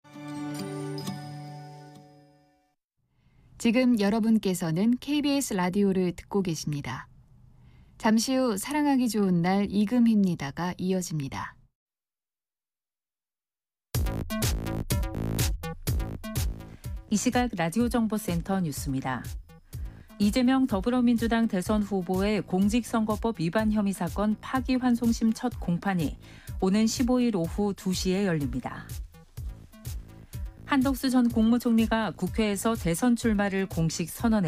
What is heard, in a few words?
NEWS; KBS Cool FM